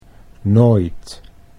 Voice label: "nooit", Dutch

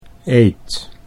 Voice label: "eet", Dutch

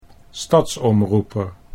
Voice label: "stadsomroeper", Dutch

